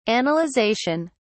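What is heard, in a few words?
"analyzation", British English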